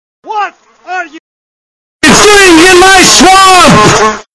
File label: really loud; shrek